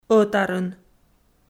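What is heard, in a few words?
"ӧтарын", Komi, female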